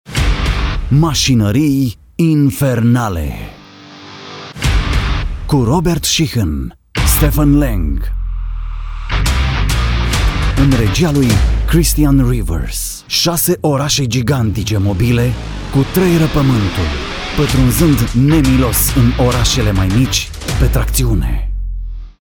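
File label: Romanian, Promotional; GoLocalise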